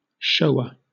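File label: "shower", British English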